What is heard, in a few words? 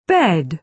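"bed", British English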